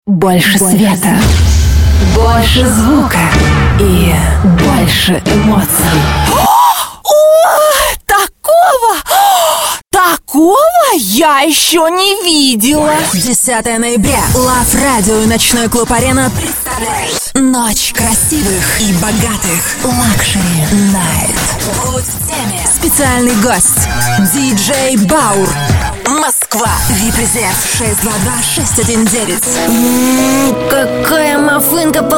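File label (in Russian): голоса, Russian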